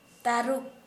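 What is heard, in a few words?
Santali female tiger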